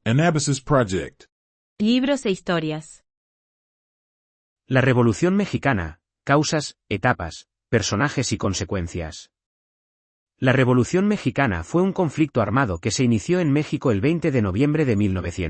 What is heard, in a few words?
voiceover